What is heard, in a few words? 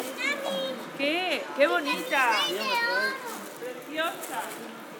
Voc Kid Mami